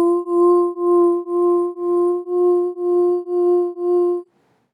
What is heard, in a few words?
only tremolo VOX